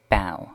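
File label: "bough", British English; pronunciations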